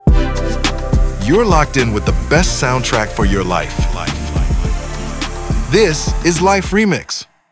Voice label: sweeper